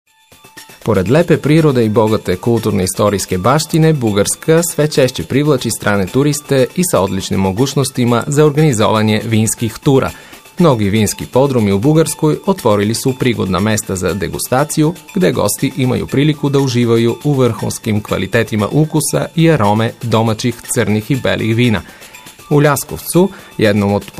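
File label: Serbian male narration; voice demos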